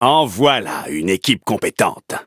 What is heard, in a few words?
victory, fr; Cookie Run